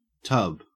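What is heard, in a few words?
"tub", Australian English; pronunciations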